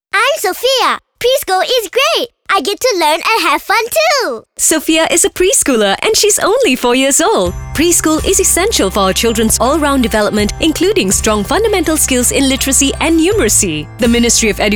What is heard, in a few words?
PRE SCHOOL ENG